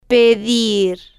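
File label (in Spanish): "pedir", Spanish, female, slow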